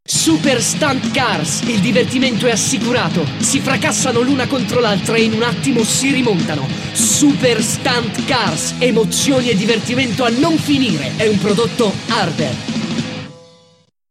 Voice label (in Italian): Spot 90 Style